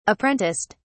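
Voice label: "apprenticed", British English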